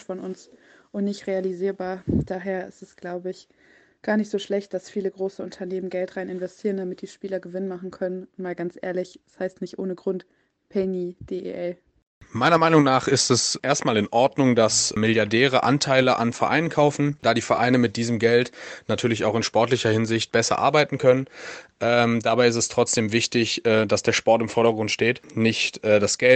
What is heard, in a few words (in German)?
Umfrage Eishockey